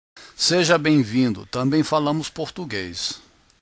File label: portugues